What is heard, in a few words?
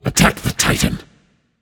vox attack m; smite; xbalanque